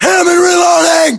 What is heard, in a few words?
inform reloading, english, us; localized english; battlechatter